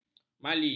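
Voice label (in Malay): "mali", Malay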